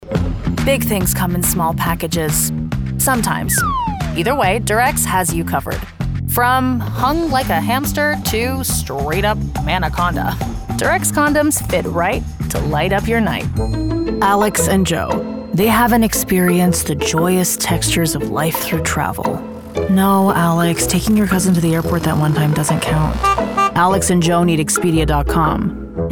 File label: Commercial English US